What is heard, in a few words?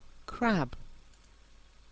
"crab", English